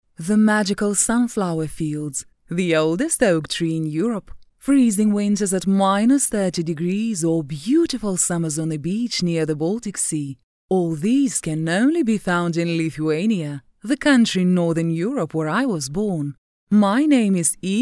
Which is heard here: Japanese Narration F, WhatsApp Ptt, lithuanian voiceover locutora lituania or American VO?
lithuanian voiceover locutora lituania